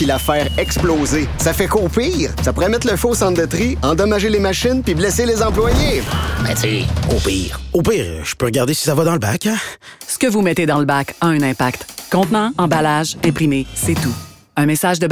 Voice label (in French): FR Perceuse; EEQ